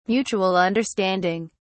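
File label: "mutual understanding", British English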